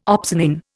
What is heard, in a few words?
"opsonin", Indian